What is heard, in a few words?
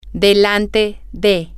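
in front of, Spanish, female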